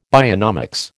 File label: "bionomics", American